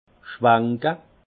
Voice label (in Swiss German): "schwanke", alsace; vin; rincer